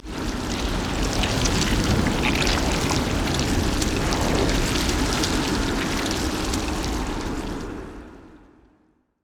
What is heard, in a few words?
pain loop; LoL; trundle